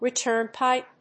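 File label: "RETURN PIPE", English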